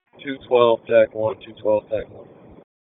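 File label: Police District Dispatch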